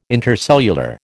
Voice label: "intercellular", American